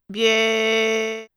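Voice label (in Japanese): "びぇ", Japanese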